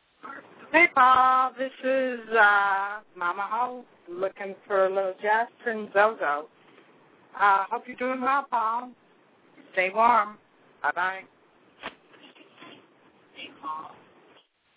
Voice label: voicemail